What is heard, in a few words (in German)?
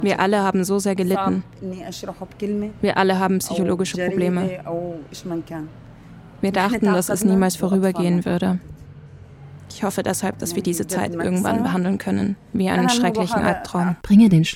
Hörbuch